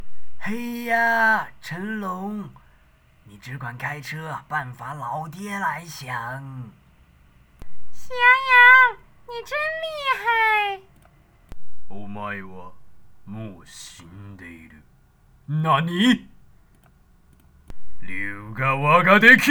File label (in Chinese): 各种声线